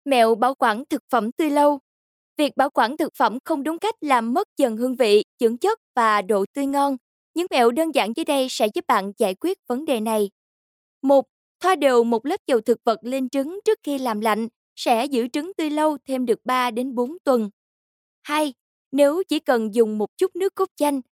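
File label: Vietnamese, female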